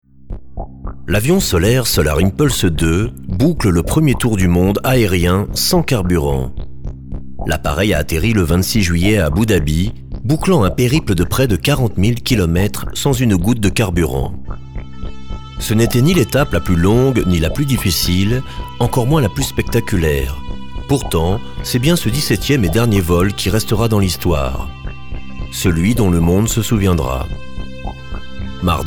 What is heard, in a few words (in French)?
voix documentaire